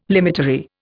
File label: "limitary", Indian